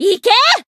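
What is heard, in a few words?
Voice finish, Japanese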